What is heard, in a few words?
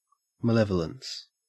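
"malevolence", Australian English; pronunciations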